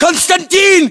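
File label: "konstantin", battlechatter, Russian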